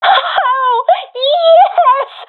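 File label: sees team combo, professional female; vo; agents; Source Model Packs; Counter Strike Model Pack; CS2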